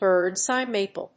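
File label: "birds eye maple", English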